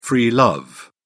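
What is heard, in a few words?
"free love", British English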